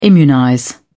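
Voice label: "immunize", British English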